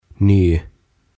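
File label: "Ny", Swedish; Uusi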